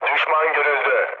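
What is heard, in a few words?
Turkish enemy detected, mood low, speech; War Thunder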